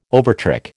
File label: "overtrick", American